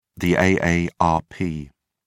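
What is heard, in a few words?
"aarp", British English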